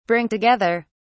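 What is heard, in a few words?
"bring together", British English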